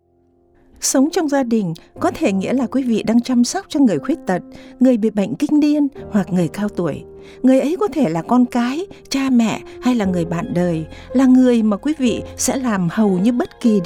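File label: Vietnamese; Carer Gateway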